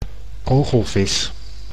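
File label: "kogelvis", Dutch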